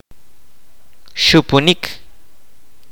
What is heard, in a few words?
"blow", kaqchikel